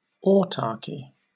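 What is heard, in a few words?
"autarchy", British English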